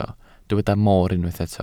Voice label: "mor", Welsh